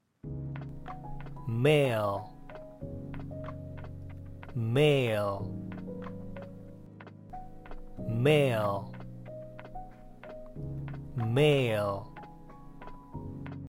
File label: Male